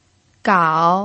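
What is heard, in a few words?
"gao", third tone, Mandarin Chinese pinyin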